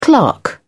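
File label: "clerk", British English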